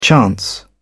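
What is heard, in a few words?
"chance", British English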